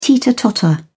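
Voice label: "teeter-totter", British English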